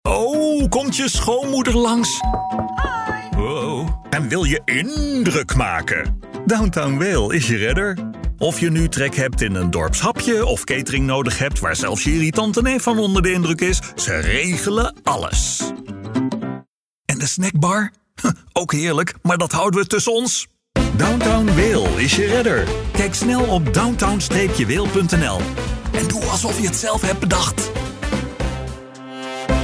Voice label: medium sell funny